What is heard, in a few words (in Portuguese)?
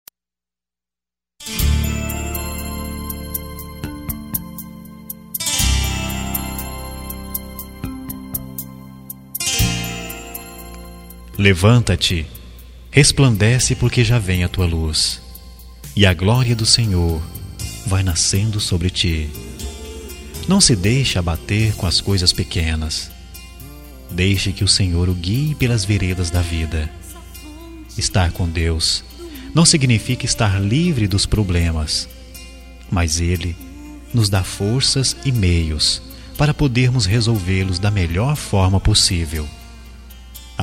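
Evangélica Mensagem pra Amizade, male, telemensagens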